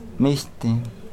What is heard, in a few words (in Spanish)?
Nawat palabras nube; pipil